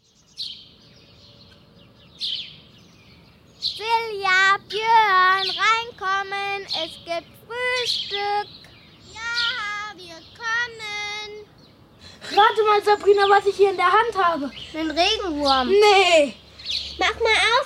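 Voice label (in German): Hörszene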